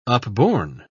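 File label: "upborne", British English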